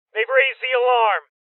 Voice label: Male Young; Alarm State

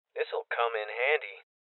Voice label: Voices Loot Collect Male Young

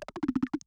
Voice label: Chatter

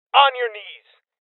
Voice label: Voices Order To Surrender Male Young; rebel cops 2019 gamerip

